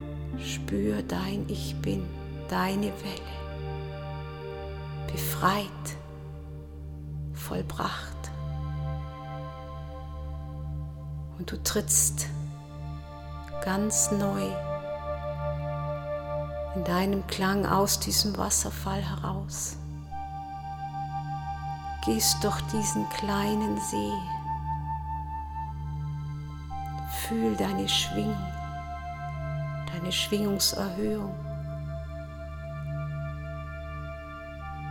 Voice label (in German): Ausschnitt Meditation Zeitreise